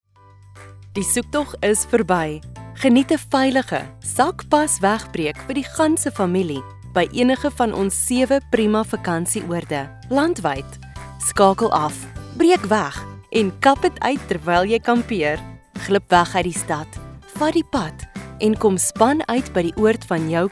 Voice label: Afrkaans Commercial

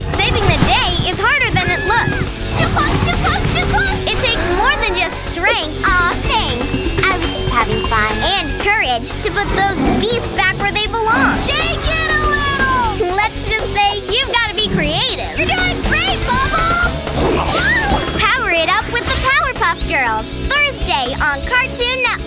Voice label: Promo VO

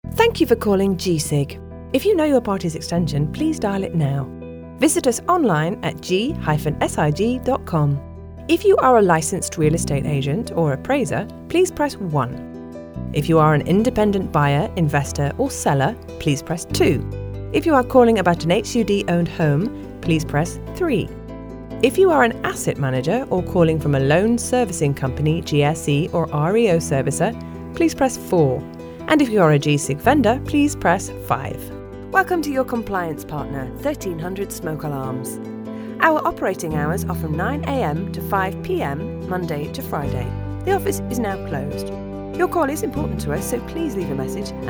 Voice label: IVR PHONE SYSTEMS

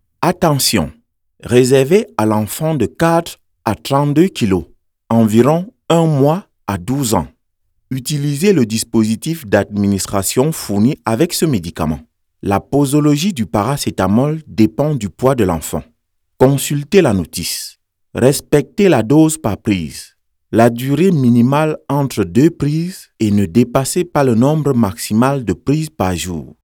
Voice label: French Medical VO 41 years old

